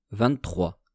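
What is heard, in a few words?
"vingt-trois", French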